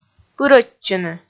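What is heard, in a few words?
"пырӧдчыны", Komi, female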